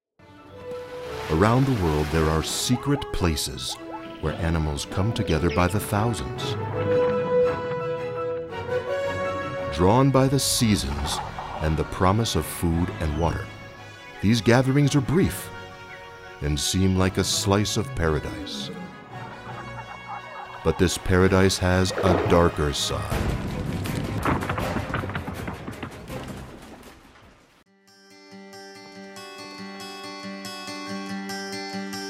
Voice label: Narration, Documentary

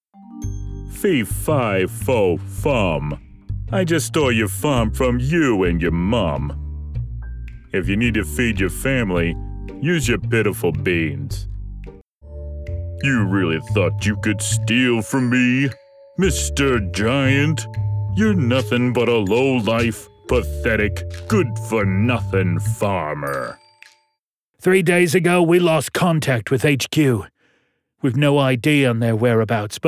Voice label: animation

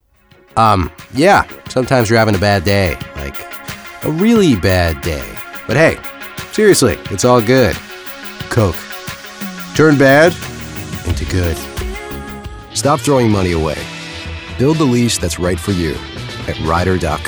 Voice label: Commercials

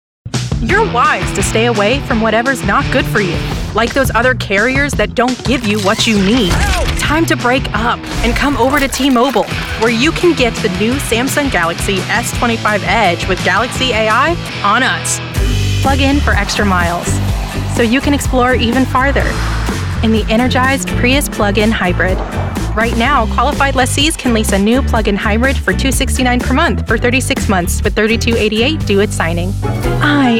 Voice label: Commercials

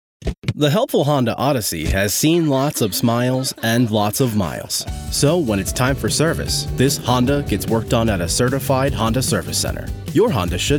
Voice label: Commercial VO; Commercial VO Demo

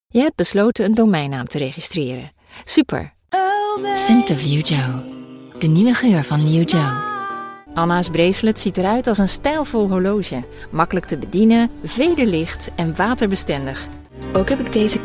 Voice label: DUTCH

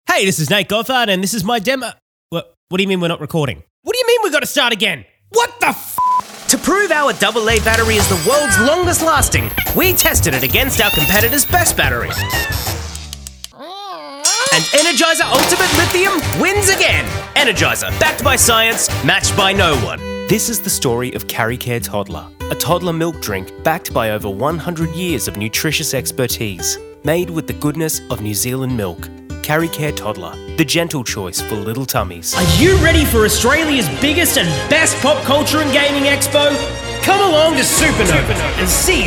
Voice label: Commercial

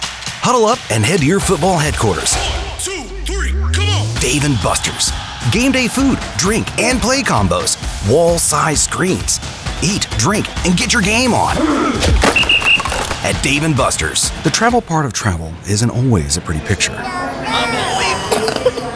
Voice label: Commercial Demo

